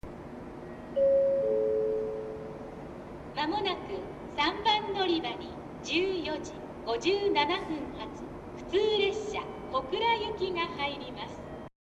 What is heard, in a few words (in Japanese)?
予告放送; 行橋; 普通 小倉行き; 戸畑と同じ、旧タイプのバージョンでした。